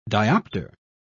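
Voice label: "diopter", British English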